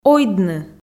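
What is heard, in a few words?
"ойдны", Komi, female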